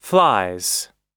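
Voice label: "flies", American English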